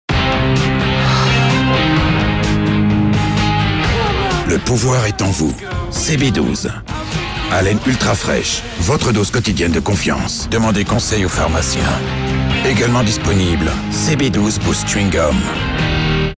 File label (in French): Publicite; trailer; CB12 SHORT